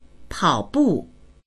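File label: "pao3bu4", Mandarin Chinese; 跑步; ジョギングをする; dictionary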